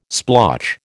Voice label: "splotch", American

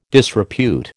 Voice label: "disrepute", American

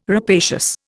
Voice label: "rapacious", Indian

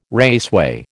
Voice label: "raceway", American

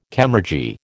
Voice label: "chemurgy", American

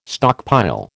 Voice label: "stockpile", American

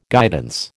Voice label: "guidance", American

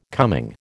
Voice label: "coming", American

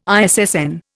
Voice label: "ISSN", Indian

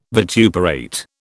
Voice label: "vituperate", British

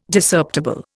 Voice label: "Discerptible", Indian

